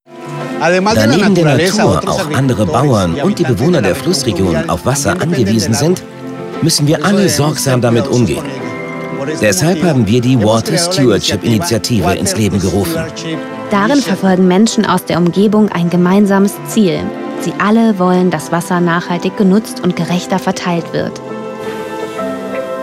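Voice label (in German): Imagefilm, Sprecher, Männer; Edeka; Banane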